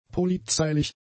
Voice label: "polizeilich", German; adjectives

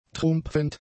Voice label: "trumpfend", German; adjectives

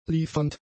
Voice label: "liefernd", German; adjectives

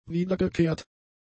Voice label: "wiedergekehrt", German; adjectives

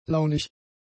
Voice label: "launig", German; adjectives

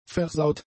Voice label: "versaut", german; adjectives

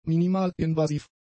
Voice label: "minimal-invasiv", German; adjectives

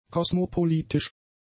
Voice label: "kosmopolitisch", German; adjectives

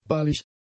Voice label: "ballig", German; adjectives

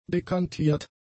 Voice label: "dekantiert", german; adjectives

